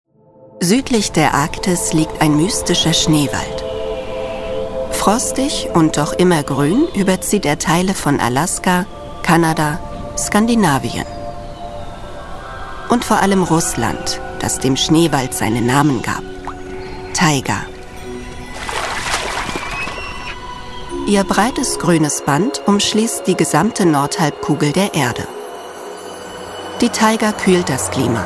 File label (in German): Doku Natur